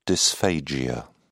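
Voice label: "dysphagia", British English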